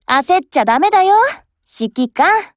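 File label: LOADING, Japanese; SpectreM4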